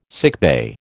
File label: "sickbay", American